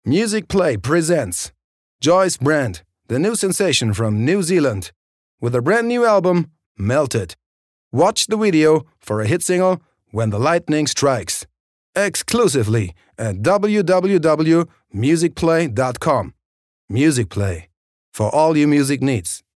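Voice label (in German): English US Dynamisch; MusicPlay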